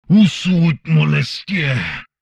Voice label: humanoid male; Stellaris